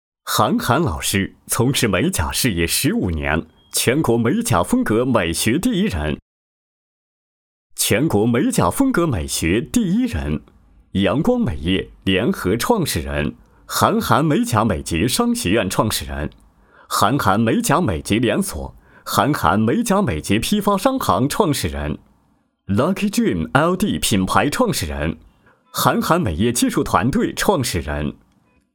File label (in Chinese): 男 宣传短片 美甲; 韩韩美甲